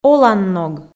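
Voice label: "оланног", Komi, female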